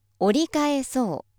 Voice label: female